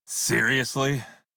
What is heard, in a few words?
Crew Hired Male en; Dialog; Warframe; Railjack Crew; Red Veil